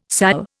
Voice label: "sal", Indian